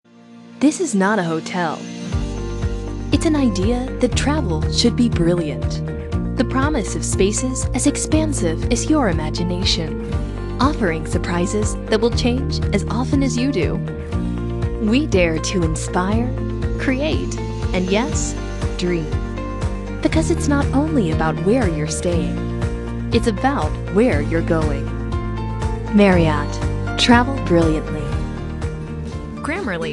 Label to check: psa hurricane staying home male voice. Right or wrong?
Wrong